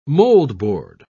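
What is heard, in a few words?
"moldboard", British English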